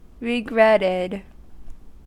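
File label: "regretted", American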